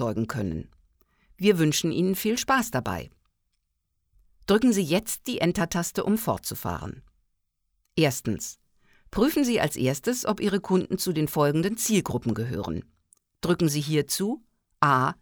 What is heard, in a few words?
e learning